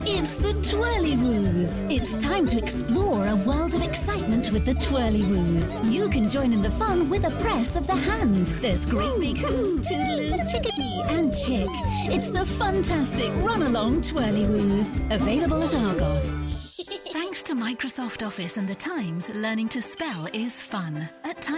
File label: Commercial